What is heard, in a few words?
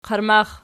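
"qarmax" nominative, Archi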